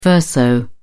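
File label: "verso", British English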